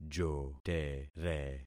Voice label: "jotere", concat syllables; Affixing experiment